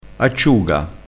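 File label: "acciuga", Italian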